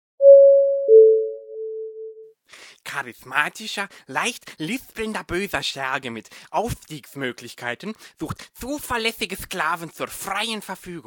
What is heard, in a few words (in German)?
durchsage